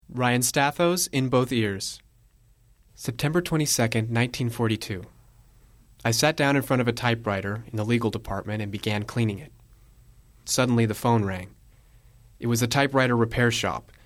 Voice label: kids commercial; Late teens/early 20s, Thoughtful, emotive, real.

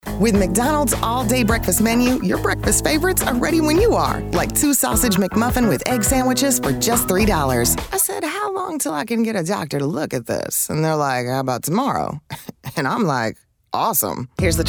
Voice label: women commercial; Street smart, African American voice.

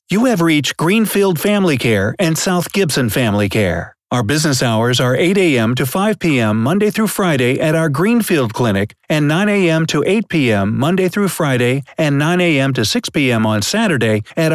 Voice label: men telephone

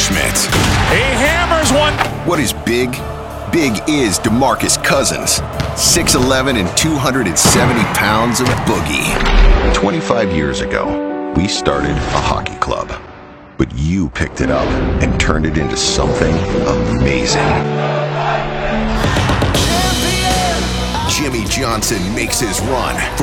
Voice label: men other sports; Unstoppable blue-collar voice that breaks through the noise.; News Promos

